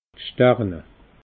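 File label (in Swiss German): "starne", Alsatian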